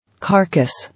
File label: "Carcass", English